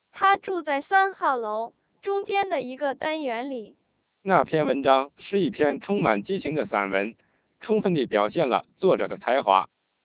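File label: Chinese, speech, CODEC2 3200 bps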